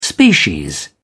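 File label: "species", British English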